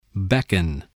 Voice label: "beckon", English

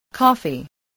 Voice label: "coffee", voice

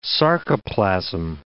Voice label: "sarcoplasm", English

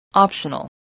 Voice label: "optional", English voice

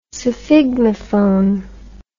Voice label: "sphygmophone", English

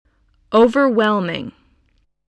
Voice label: "overwhelming", English voice

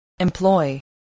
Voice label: "employ", spelling

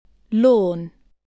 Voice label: "lawn", English

